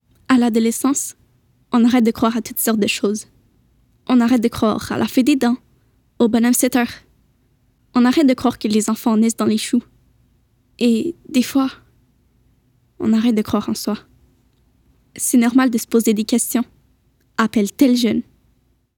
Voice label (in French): vocal jeune; Paris